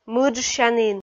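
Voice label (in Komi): "мыджсянін", Komi, female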